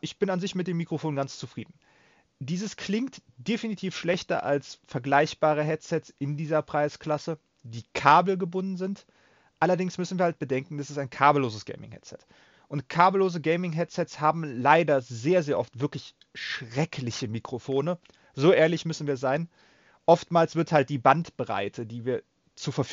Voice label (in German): Mikrofontest Turtle Beach Stealth 700 Gen 2 MAX